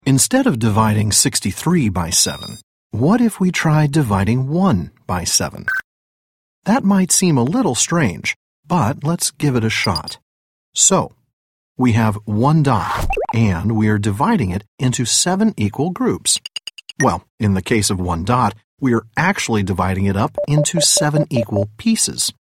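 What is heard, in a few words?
American English explainer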